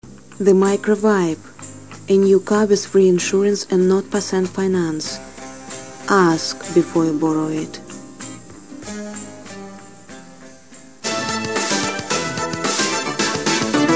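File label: russian female voice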